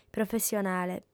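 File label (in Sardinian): "professionale", female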